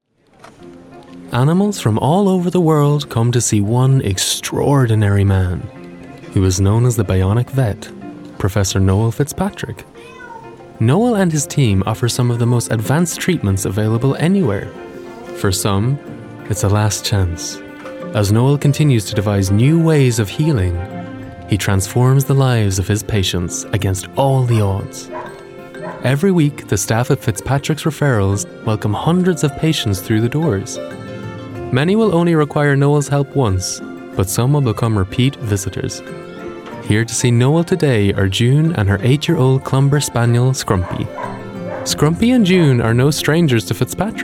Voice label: non binary and male irish accent documentary and corporate; irish northern